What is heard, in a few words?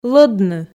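"лӧдны", Komi, female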